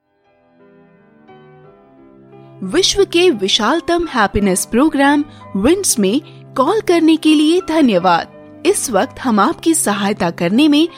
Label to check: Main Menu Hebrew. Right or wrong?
Wrong